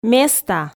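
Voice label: "места", Komi, female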